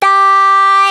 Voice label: "dai" G4; 街角魔族